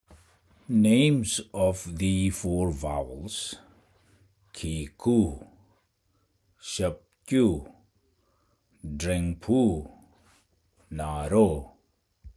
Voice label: The Four Vowels, Tibetan